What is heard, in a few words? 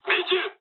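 bomb success mood low, speech japanese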